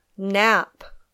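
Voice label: "nap", English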